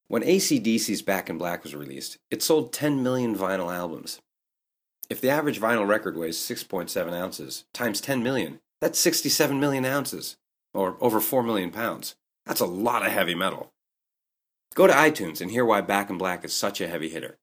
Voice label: iTunes Promo, voice-over